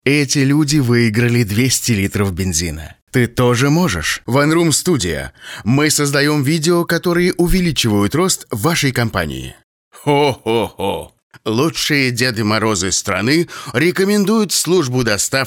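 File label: Russian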